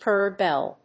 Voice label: "currer bell", English